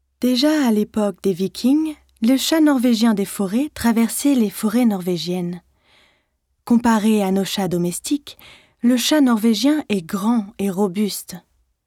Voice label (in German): Dokumentation FR